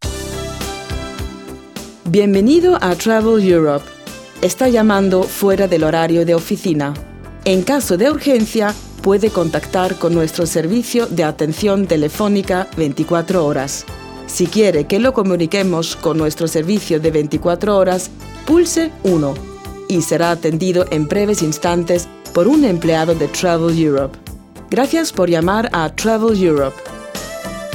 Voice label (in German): Sprecherdemo spanisch